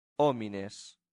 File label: "homines", Latin; santillana